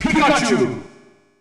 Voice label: Announcer; SSB